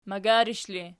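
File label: "magarish" ergative, Archi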